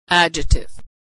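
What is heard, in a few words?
"adjective", American English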